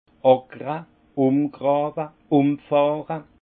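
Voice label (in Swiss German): "akre", alsace Haut-Rhin; labourer; vin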